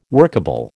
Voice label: "workable", American